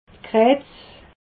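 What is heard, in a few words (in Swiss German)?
"kratz", Alsatian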